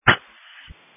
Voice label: Sheriff Dispatch